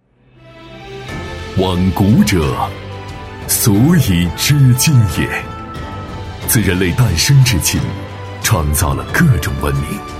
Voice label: 男 大气磁性; 泸州老窖; 男B3-磁性稳重

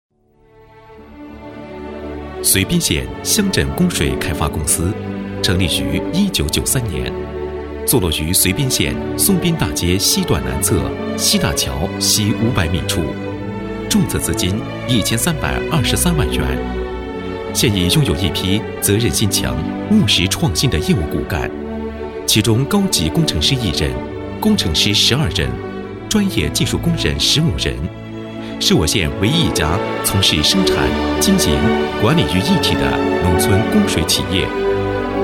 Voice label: 男 企业专题; 低沉磁性; 沉稳大气

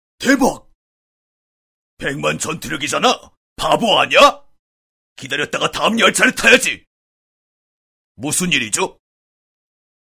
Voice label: 韩语男声 角色

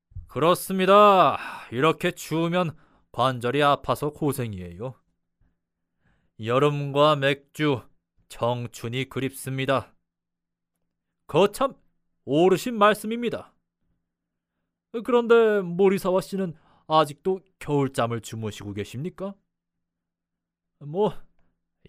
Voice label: 韩语男声 模仿30多岁男声

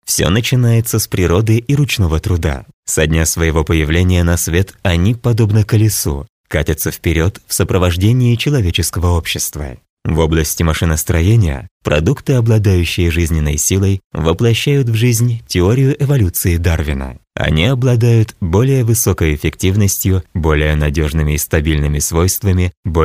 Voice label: 俄语男声-商务-高级

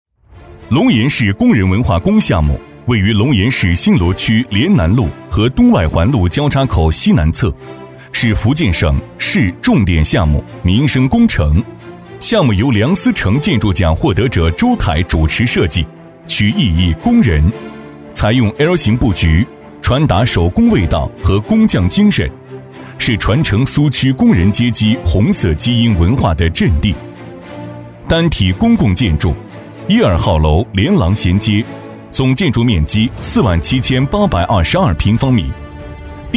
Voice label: 男 工程汇报 大气稳重; 《龙岩市工人文化宫》

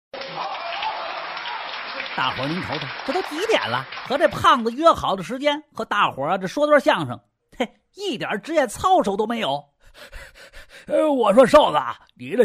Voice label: 男 相声+快板广告; 轻松活泼

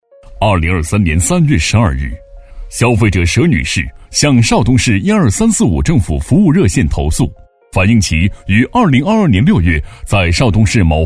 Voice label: 男 案件讲述, 大气浑厚; 2023年度维权典型案例

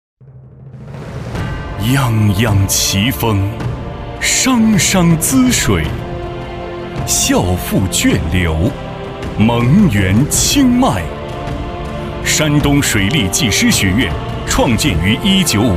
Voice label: 男 学校宣传; 激情有力; 大气浑厚; 水利学院

